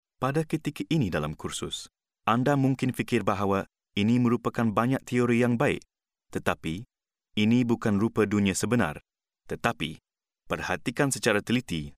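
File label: Malay Malaysia male narrative; voice over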